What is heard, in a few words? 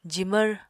"jimvr", Apatani